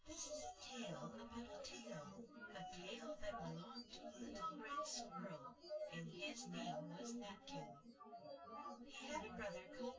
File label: female synthconv